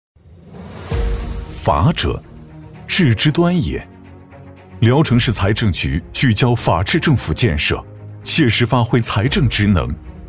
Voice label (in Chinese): 男 宣传片 大气恢宏; 厚重有力; 大气浑厚; 聊城财政